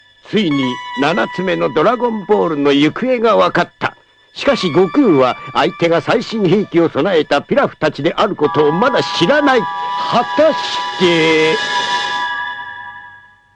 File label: narrator; dragon ball